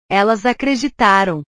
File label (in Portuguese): "elas acreditaram", portugues